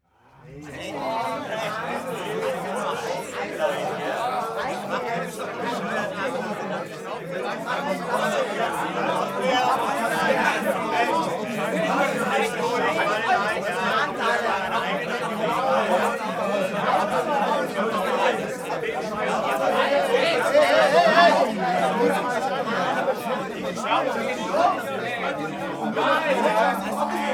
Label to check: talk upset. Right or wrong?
Right